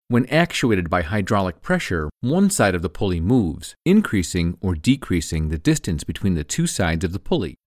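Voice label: American English, Corporate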